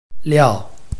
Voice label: "liao4", Chinese

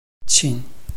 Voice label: "qin5", Chinese

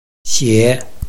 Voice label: "xie3", Chinese

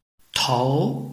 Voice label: "tou2", Chinese

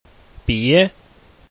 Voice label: "bie2", Chinese

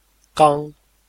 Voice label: "gang1", Chinese

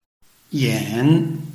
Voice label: "yan3", Chinese

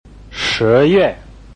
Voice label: "shi2 yue4", Chinese

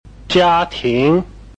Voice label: "jia1 ting2", Chinese

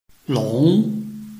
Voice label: "long2", Chinese

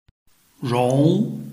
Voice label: "rong2", Chinese

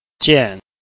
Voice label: "jian4", Chinese

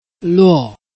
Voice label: "luo4", Chinese

